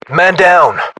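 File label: reaction casualty generic, battlechatter British; english; uk; localized english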